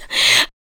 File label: SPOKEN VOX, ADLIPS